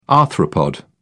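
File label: "arthropod", English, British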